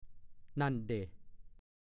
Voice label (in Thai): "นั่นเด่", ภาษาโคราช; นั่นซิ